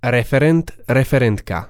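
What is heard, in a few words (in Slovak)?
"referent referentka", Slovak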